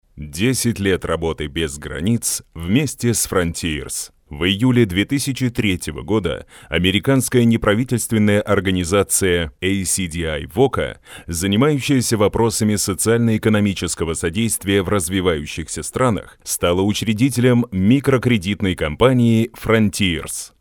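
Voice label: zg solid presentation, Russian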